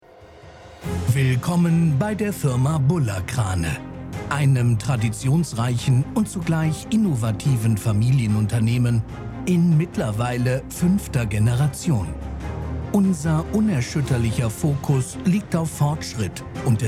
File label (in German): IMAGEFILM; Buller Krane